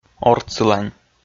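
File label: "ортсылань", Komi, male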